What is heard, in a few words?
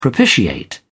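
"propitiate", British English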